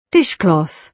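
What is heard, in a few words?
"dishcloth", British English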